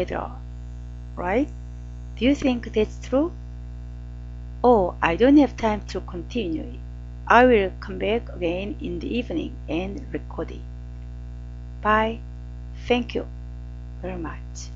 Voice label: English Korean and Chinese audio